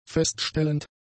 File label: "feststellend", german; adjectives